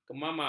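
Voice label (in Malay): "kemamar", Malay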